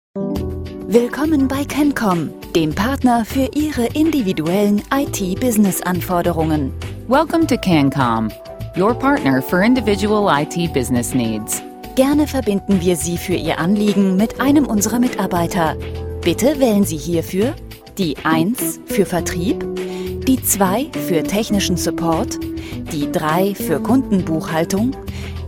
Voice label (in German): ivr menue ansage deutsch englisch